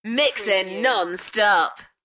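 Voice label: UK female, DJ vocals; Mixin non-stop; beanstalk audio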